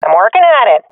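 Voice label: defusing, swat female; vo; Counter Strike Model Pack; CS:GO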